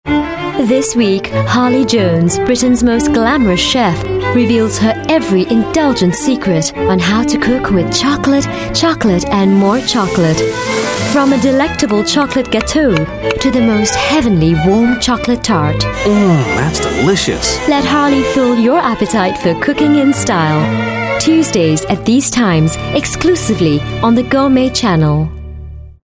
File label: Asian English Promo; GourmetChannel